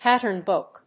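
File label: "Pattern Book", English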